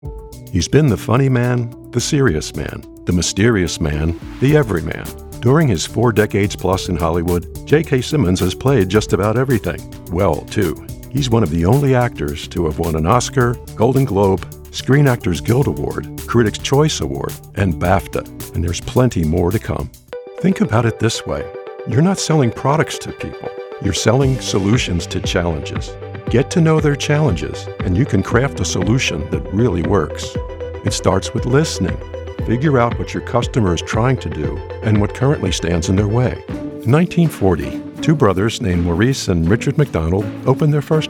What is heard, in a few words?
Narration